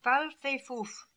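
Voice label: "Fal Fe Foof", pronunciations; Fourth Way; Beelzebub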